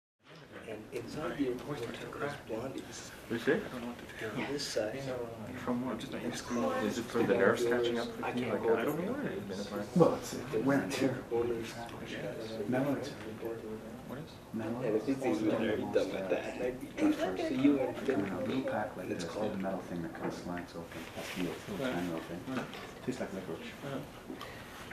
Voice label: People in a close room talking